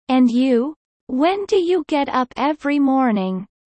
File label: low